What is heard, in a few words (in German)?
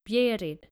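"Bjerrid", dänische Mädchennamen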